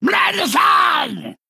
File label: Male Murloc Attack